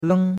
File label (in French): "leng1", chinois; Cours-de-Chinois